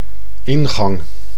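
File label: "ingang", Dutch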